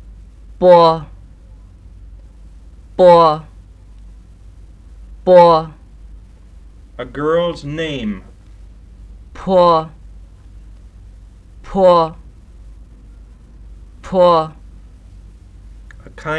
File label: Green Maio, Consonant Ear Training Tape